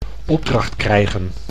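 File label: "opdracht krijgen", Dutch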